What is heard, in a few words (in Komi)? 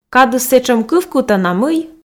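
"кадыс сэтшӧм кывкутана мый", Komi, female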